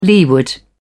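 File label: "leeward", British English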